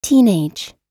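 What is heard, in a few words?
"teenage", English; oxford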